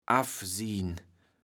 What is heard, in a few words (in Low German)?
"affsien", kaevels platt